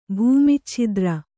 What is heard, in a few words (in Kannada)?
"ಭೂಮಿಚ್ಛಿದ್ರ", Kannada